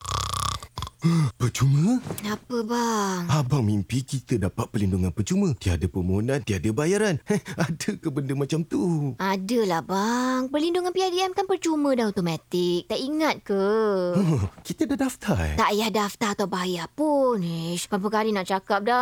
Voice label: Radio Ads; awareness-media